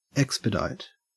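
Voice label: "expedite", Australian English; pronunciations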